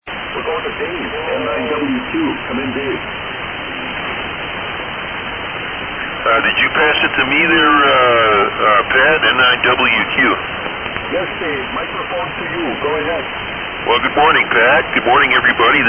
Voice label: Hallicrafters HT-32B & SX-101 MkIIA & HT33A Amp D-104; N.Fon Du Lac; D-104 Mic